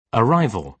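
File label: "arrival", British English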